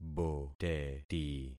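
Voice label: "boteti", concatenated syllables; Affixing experiment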